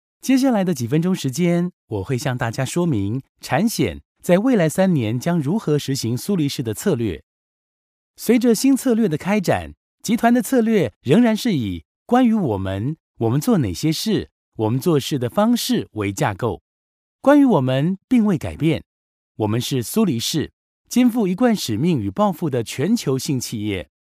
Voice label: taiwanese mandarin